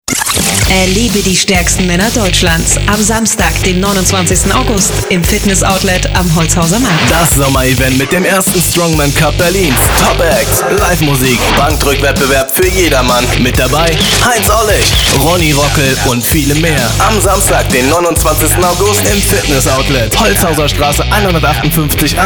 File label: radio fitness outlet